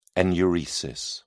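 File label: "enuresis", English, British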